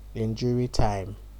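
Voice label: "injury time", Nigerian Pidgin, male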